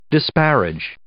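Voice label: "disparage", English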